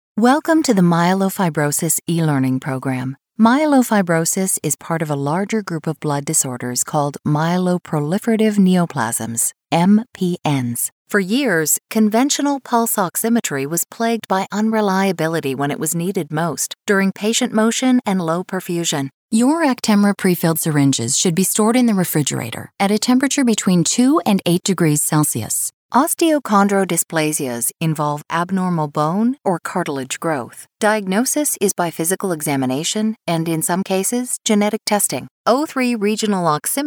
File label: Medical Narration